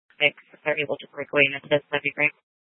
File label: Tempe PD, scanner; Rgnl Wireless Co-op (RWC)